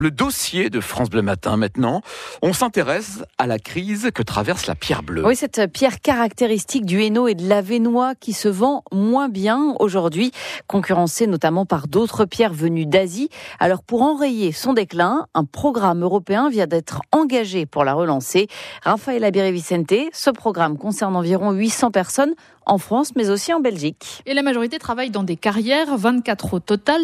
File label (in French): Reportage; France bleue nord